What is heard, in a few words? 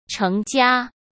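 "成家", Mandarin Chinese; hsk; chinese flashcards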